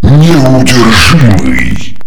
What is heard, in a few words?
godlike, male